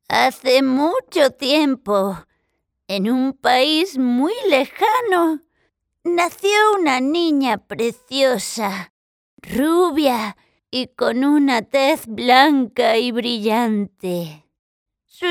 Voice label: locutora cuento voz anciana senior spanish voiceover; imitación de voz de anciana para cuento